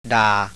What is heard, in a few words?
Thai